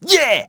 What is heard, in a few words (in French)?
reussite hom